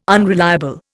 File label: "unreliable", Indian